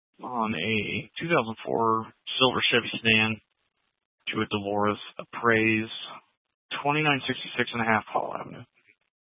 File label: Sheriff Dispatch, scanner; Mesa County